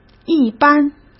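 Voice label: "一般", Mandarin Chinese; hsk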